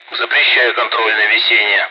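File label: Speech Sound Russian Common air traffic control Messages; ATC; unable clear control hover; DCS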